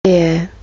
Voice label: "bear", kiwi english